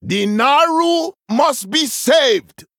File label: Male Troll